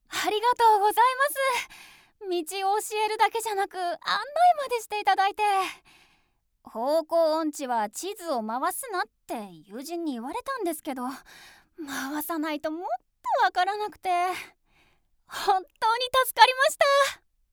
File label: natural girl